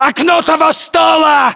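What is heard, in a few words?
landmark near table, battlechatter, Russian; localized english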